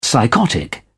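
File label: "psychotic", British English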